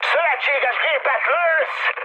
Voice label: player friendly fire, mood high, speech hun